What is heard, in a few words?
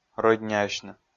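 "рӧдняасьны", Komi, male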